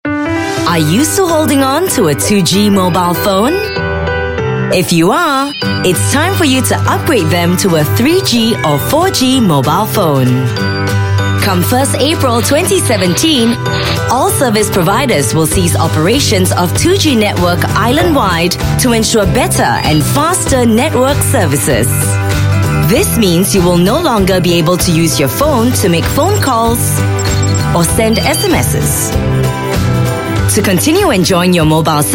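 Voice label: Asian English post paid TV commercial; Starhub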